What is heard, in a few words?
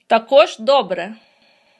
dialogue, Ukrainian